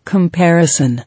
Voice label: "Comparison", English

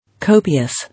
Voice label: "Copious", English

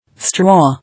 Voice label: "Straw", English

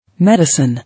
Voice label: "Medicine", English

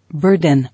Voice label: "Burden", English

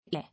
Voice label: "Air", English

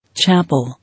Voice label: "Chapel", English

